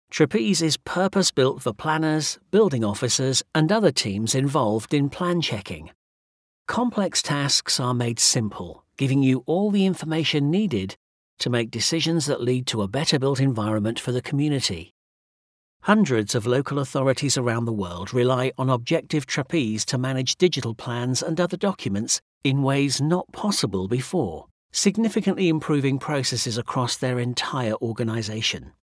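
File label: British English, male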